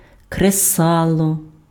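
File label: "кресало", Ukrainian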